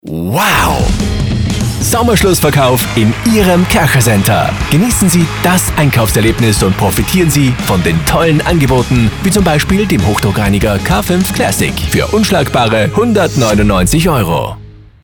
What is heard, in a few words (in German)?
Spot Sommerschlussverkauf Rock; Kaercher